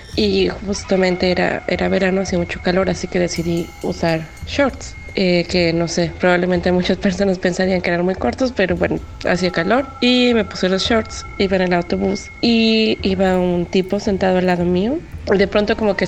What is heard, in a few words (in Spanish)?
campaña testimonios calle